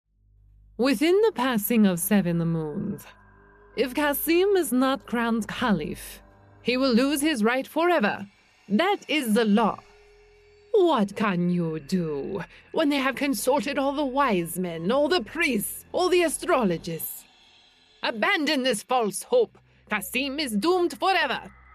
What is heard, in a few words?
Evil Sorceress